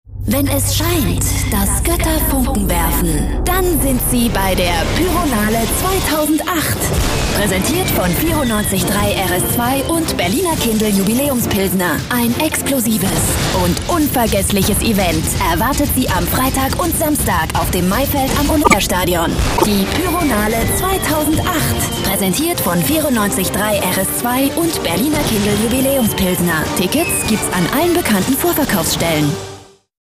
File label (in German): werbung; pyronale